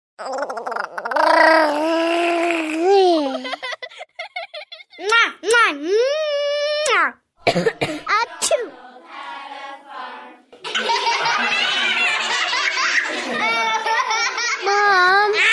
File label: kids and babes voices